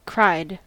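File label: "cried", US English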